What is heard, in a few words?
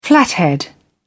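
"flathead", British English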